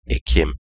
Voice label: October in Turkish